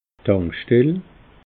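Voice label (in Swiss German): "tankstell", Bas Rhin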